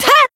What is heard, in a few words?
attack, jp; kid